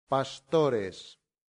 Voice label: "pastores", latin; santillana